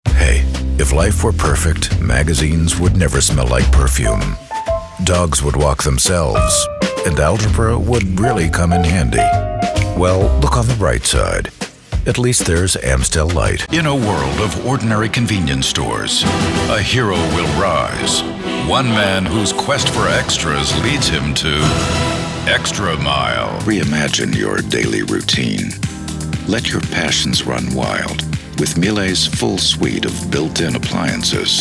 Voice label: Commercial